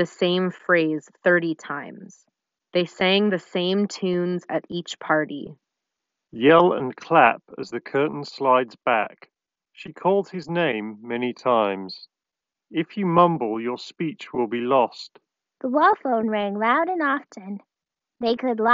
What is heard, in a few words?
Apple AirPods G2 Ideal microphone sample